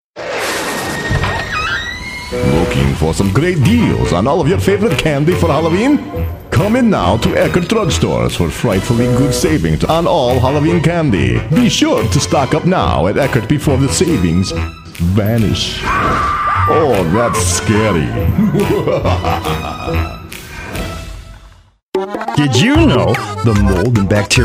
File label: Character Animation